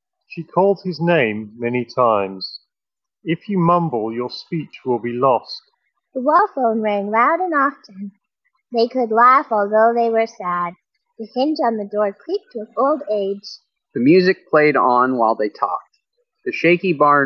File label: JBL Tune230NC TWS Street microphone sample